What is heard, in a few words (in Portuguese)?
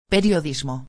"periodismo", espanhol